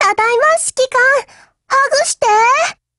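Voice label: OPERATION OVER Japanese, Voice; GF Alarm; FN57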